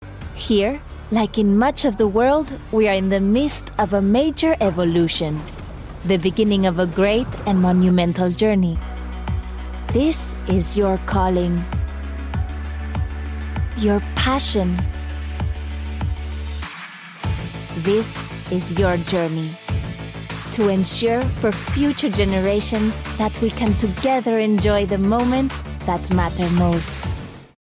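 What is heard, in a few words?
Spanish European, Accented English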